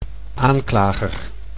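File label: "aanklager", Dutch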